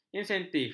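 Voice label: "insentif", Malay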